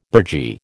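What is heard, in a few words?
"burgee", American English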